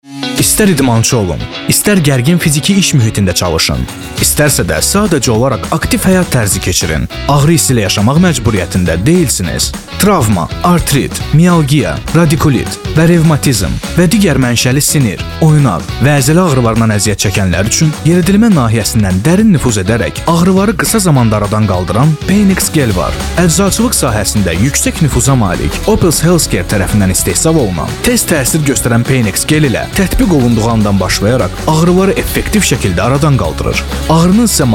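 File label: Azerbaijani; Azeri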